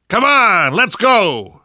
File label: move on, survivor voice; namvet; Left 4 Dead 2; left4dead2 dlc2